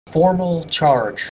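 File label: "formal charge", English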